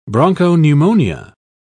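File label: "bronchopneumonia", British English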